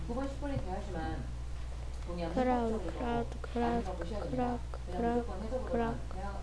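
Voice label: "clock", spoken word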